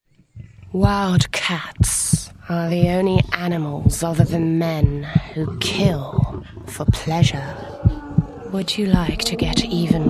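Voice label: Dutch English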